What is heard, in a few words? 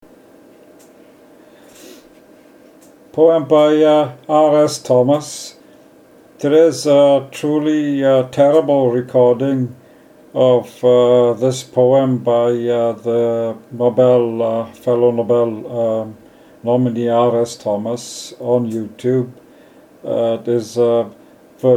Poetry Reading